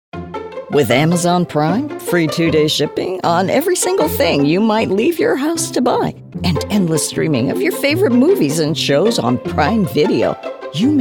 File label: COMMERCIAL